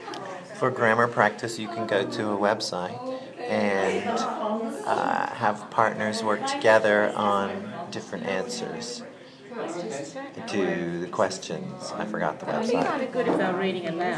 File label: english practice